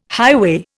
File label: "highway", Indian